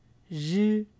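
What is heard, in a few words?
"zhi", syllable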